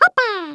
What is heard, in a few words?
FATALITY, Dutch; Worms Armageddon